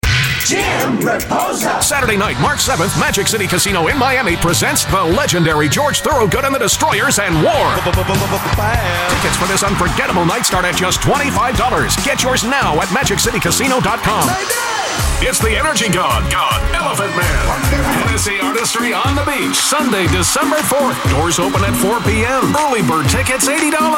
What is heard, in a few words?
Concert Commercial VO